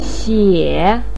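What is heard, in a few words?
"xie", Mandarin pinyin, third tone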